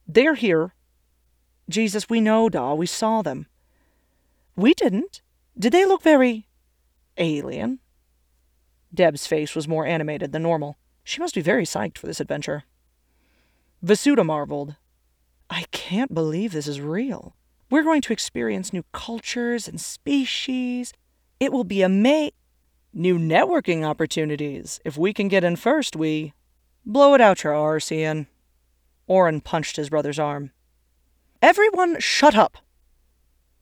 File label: Multiple Voices Sample, Female Voice Over and Audiobook Narration